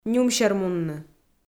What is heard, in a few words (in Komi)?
"нюмсермунны", Komi